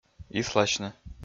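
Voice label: "исласьны", Komi, male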